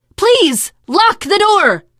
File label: close the door, teen girl, survivor voice